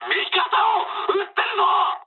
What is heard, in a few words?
player friendly fire mood med, speech jp